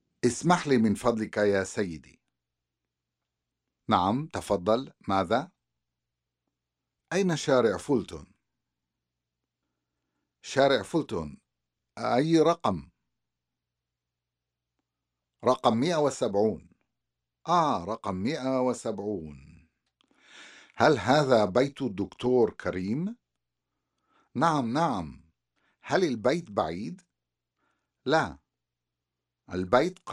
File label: Conversation; SALAMAAT